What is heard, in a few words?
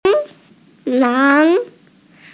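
"lang2", Chinese, female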